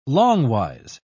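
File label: "longwise", British English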